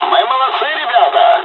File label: dialogs russian; War Thunder